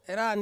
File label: "ran", Ansus; to cook